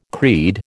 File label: "creed", American